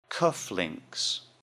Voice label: "cuff links", English; Jewelry and Cosmetics